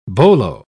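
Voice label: "bolo", British English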